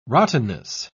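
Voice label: "rottenness", British English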